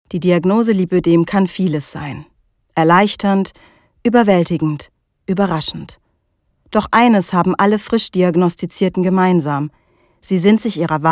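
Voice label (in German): hörbuch sachbuch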